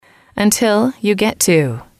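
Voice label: until you get to, female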